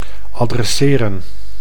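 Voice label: "adresseren", Dutch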